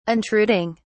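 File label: "intruding", English, uk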